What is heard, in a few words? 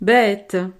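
"bete", French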